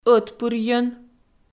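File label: "ӧтпырйӧн" Komi, female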